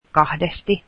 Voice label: twice, finnish